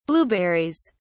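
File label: "blueberries", British English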